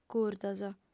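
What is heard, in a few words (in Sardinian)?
"curtos", female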